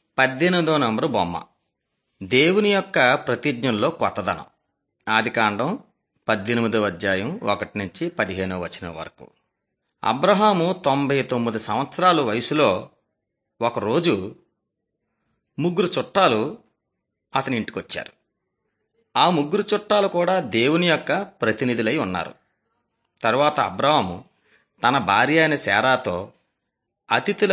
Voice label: telugu bangladesh; beginning with god

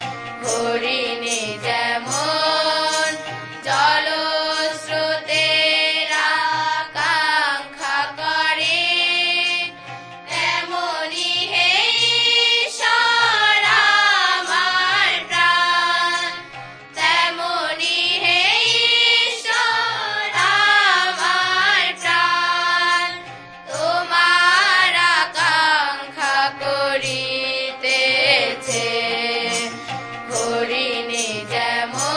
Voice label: telugu bangladesh; on trial for god

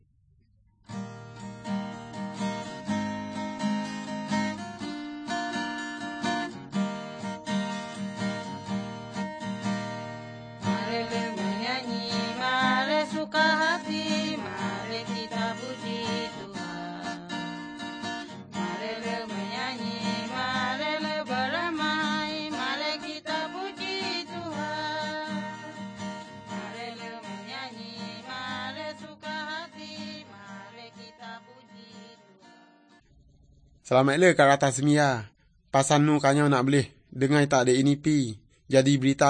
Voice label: good news, urak lawoi

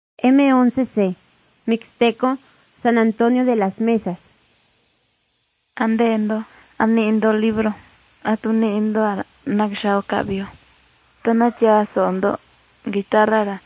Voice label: mixtec diagnostic spanish mexico

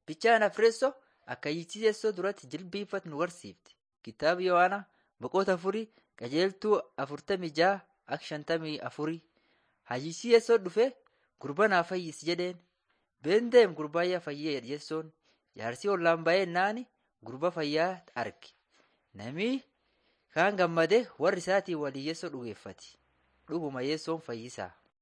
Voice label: borana sakuye

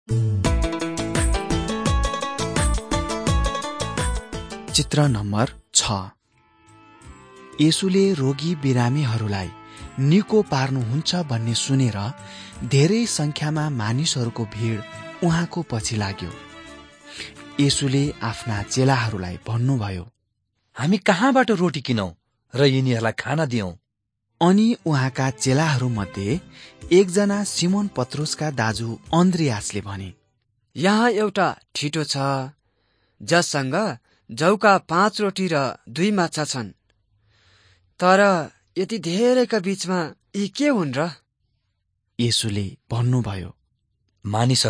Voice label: jesus lord and saviour nepali

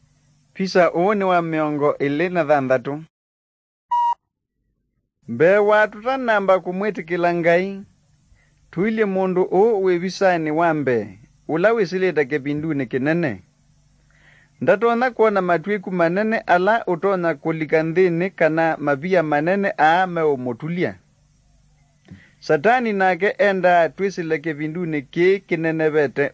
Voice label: kikamba drama; good news; bible

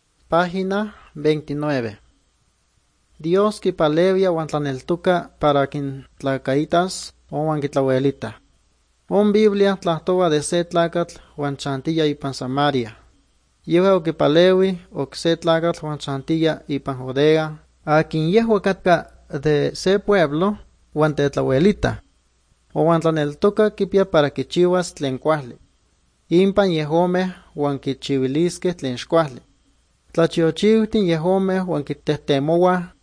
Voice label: good news, nahuatl guerrero chilapa

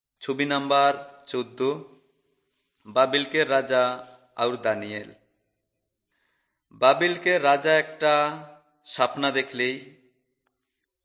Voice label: urao, drama

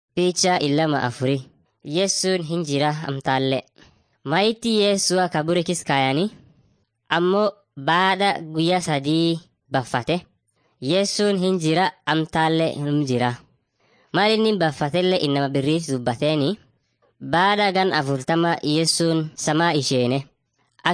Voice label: afan munyoyaya; mighty men of god

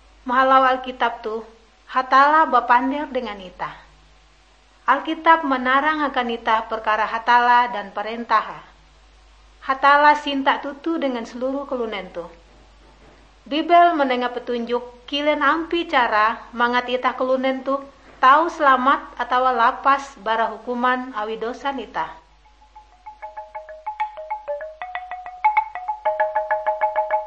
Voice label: kabar bahalap good news ngaju, drama

